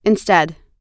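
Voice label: "instead", US English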